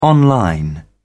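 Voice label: "online", British English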